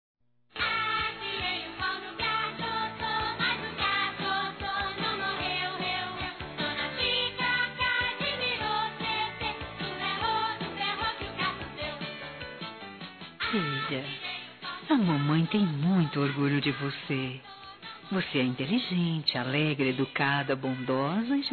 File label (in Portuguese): Filha Criança Feminino, Telemensagens Aniversário; Aniversários; Aniv Familiar